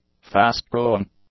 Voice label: "fast-growing", english; adjectives